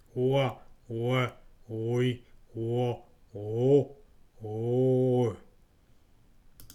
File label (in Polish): "ó", fonetyka